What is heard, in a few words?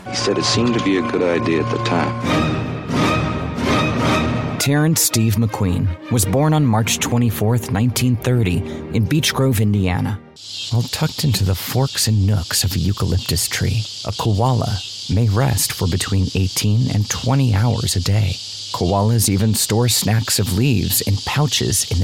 english american documentaries